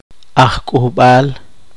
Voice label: poqomchi; orientador consejero